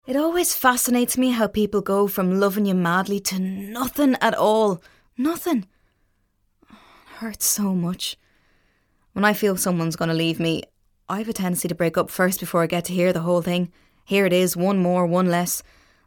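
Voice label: English, Character Irish; GoLocalise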